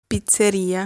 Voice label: "Pizzeria", Italian